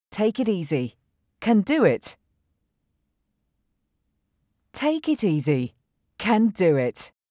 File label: Take it easy! (Name) can do it, Eng